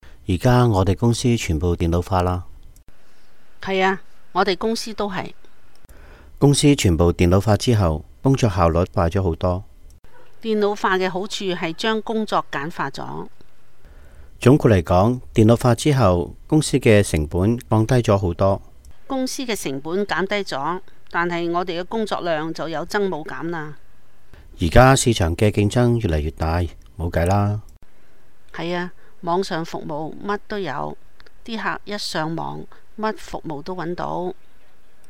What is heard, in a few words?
Two friends talking about computerization in their companies